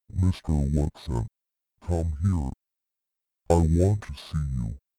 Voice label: deep